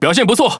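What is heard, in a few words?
Chinese (Taiwan) Speech Quick Commands Good Game; ZH TW; World Of Warships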